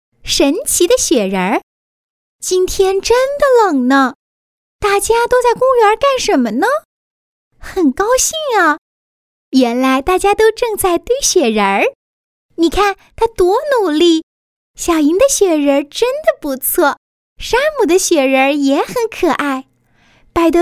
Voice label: Mandarin Audiobook Kids Chinese, Mandarin China Female